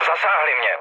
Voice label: aircraft damaged, speech cze, mood low

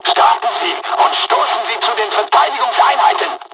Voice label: takeoff, dialogs german; midway

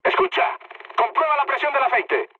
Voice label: damaged oil, mood high, speech; War Thunder

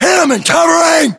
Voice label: response covering, battlechatter us

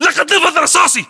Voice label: inform reloading generic, english battlechatter

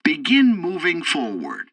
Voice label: tutorial tank arcade movement, english; War Thunder

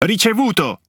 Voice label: Speech Quick Commands Aye Aye, IT; World Of Warships

